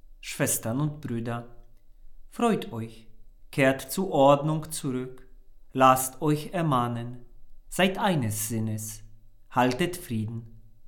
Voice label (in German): 2 Lesung; dreifaltigkeitssonntag a